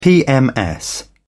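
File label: "pms", British English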